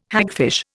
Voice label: "hagfish", Indian